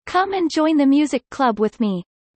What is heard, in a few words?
fast; studying abroad